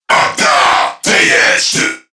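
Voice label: Cover Me Crewmen, English; Dialog; Barks; Battle; Warframe; CorpusCrewmen; CrewmanA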